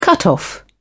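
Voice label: "cutoff", British English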